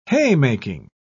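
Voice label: "haymaking", British English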